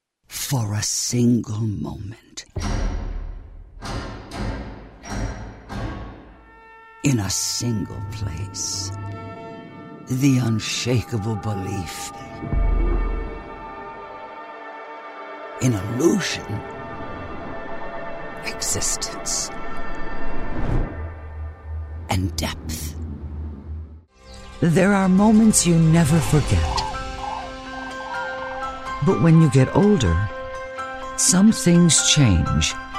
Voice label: Commercial, english female voices american accent